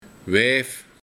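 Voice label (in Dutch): "Wijf", dialect; Vrouw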